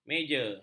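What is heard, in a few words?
"meja", Malay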